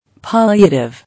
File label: "Palliative", English